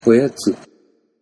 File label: "oyatsu", Japanese